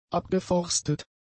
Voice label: "abgeforstet", German; adjectives